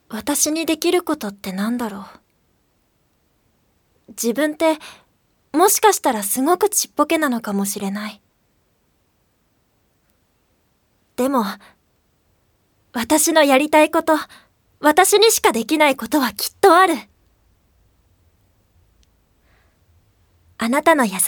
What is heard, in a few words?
narration